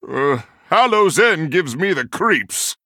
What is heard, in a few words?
Male Human Happy Halloween